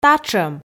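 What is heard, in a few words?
"татшӧм", Komi, female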